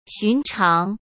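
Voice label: "寻常", Mandarin Chinese; hsk; chinese-flashcards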